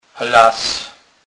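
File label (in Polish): "las", Kurpie dialect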